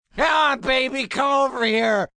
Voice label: Drunk Baby Come, Drunk, male; Talking Sound Effects

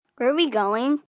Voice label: Where Are We Going, Questions, 1 child, 10 yrs.; Talking Sound Effects

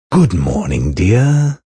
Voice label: Good Morning Dear, Male; Greetings And Goodbye; VoiceBox

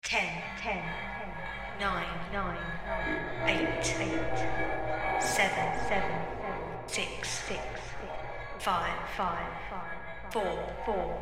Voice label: Countdown British Female Voice; talking; Talking Sound Effects; science fiction sound effect

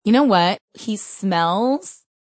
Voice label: You Know He Smells, Female; Talking Sound Effects

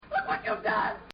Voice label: Man Screams Look What You've Done; Talking; Sound Effects:Voice

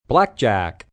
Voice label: "Blackjack", Casino, gambling, male; human voice speaking; Casinos; Voice Box

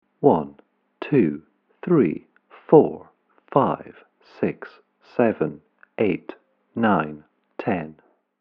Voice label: Counting 1 To 10 Male Voice; Talking Sound Effects

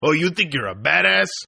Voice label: Toughguy Badass English, Tough Hispanic Male; Attitude Sound Effects

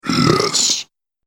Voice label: Orc Says "Yes"; horror

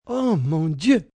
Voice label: Oh My God, Reaction, negative, male, French; Exclamation Sound Effects

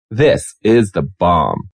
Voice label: This Is The Bomb, Male

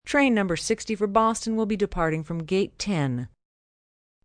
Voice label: Train, Train, subway, PA, announcements, female; Boston

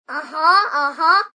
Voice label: Kids Uh Huh Uh Huh, Male, child; Talking; Voice Box

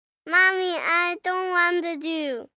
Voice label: Whine Dont Wanna, Whine, 1 boy, 4 yrs; Whining; VoiceBox

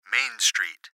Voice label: Gps Voice, Male; Main Street; Laptop Speaker Adult Male; Recorded Voice; Blastwave

